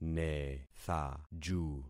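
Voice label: "nethaju", concatenated syllables; Affixing experiment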